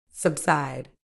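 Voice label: "subside", British English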